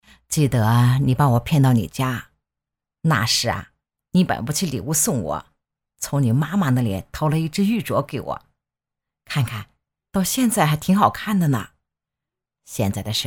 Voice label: 模仿 50岁妈妈; 记得 你把我骗到你家的

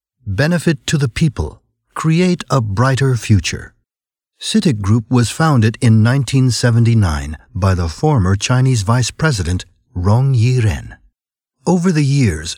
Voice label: 外籍男; CITIC Group中信集团

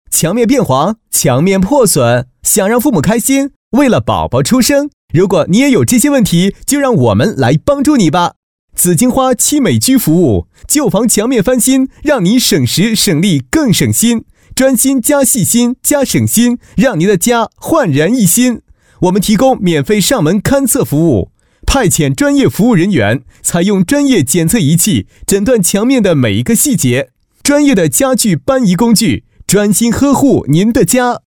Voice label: 专题, 国语男声配音; 年轻; 紫荆花漆

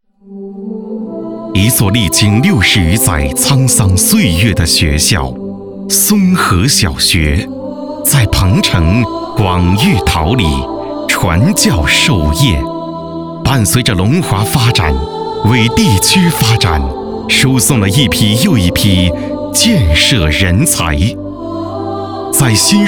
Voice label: 专题 小学 大气; 松和小学

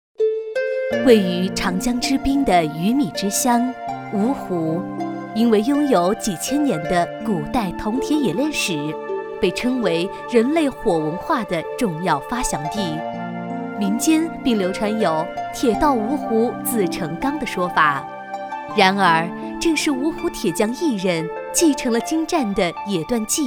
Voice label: 女 城市介绍; 铁绘丹青芜湖

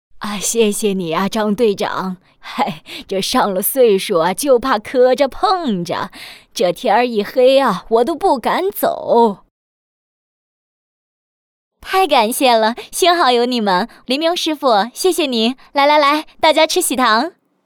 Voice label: 老人配音-谢谢你啊; 张队长

